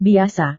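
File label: "biasa", Indonesian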